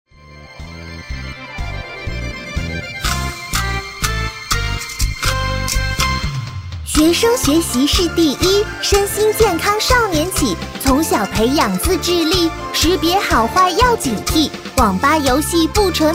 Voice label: 女 童声快板 学生安全防范歌谣

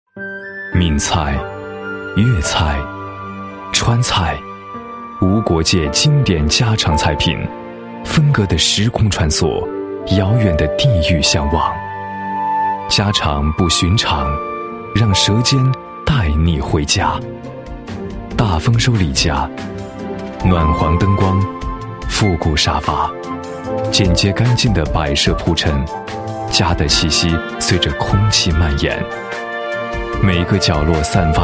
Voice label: 男 专题, 餐厅宣传介绍; 大丰收李家

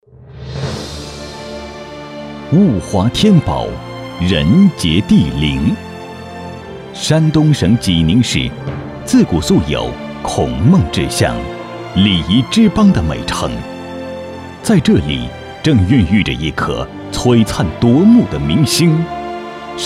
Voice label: 男 专题 大气; 华油

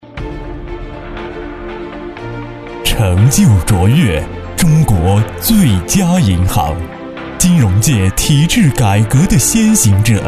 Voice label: 男 宣传片, 国语男声配音; 中国建设银行

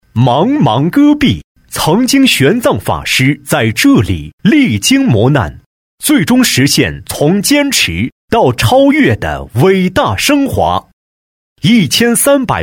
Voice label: 男, 宣传片, 活动宣传片; 圣象

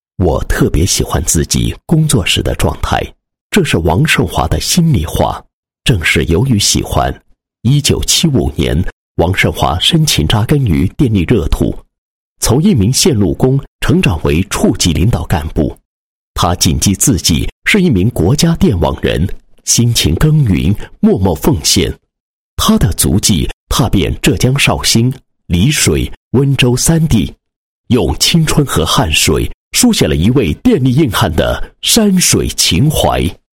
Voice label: 男 人物; 国家电网公司特等劳模

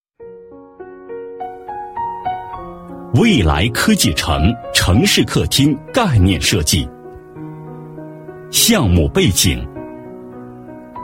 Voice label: 男 汇报, 国语男声配音, 大气浑厚; 城市客厅

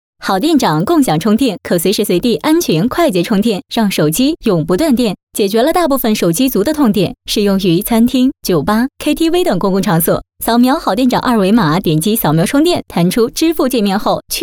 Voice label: 女, 产品介绍, 快语速; 好店长

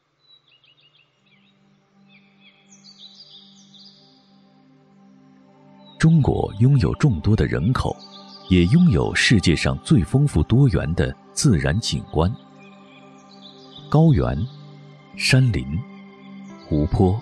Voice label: 男 纪录片; 舌尖上的中国; 自然的馈赠

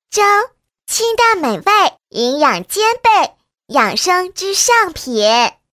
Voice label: 女 女童, 国语女声配音; 粥养生之上品

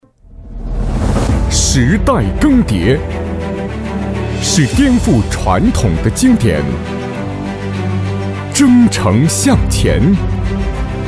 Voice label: 男, 广告, 地产; 博白公司地产

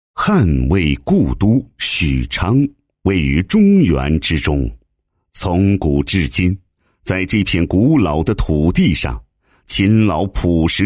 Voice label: 男 工作纪实; 教体局创建省级文明单位工作纪实

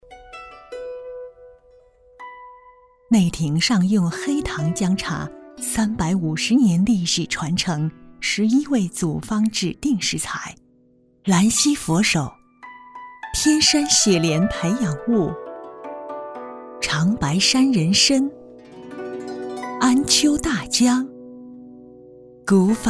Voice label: 广告; 北京同仁堂

